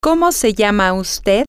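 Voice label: what Is Your Name, Spanish, female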